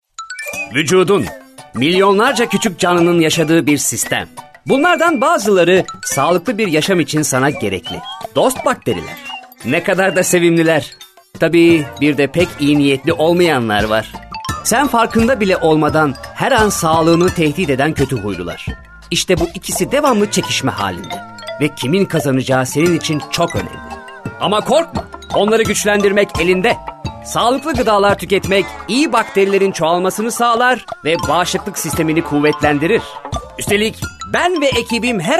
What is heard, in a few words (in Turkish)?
tanitim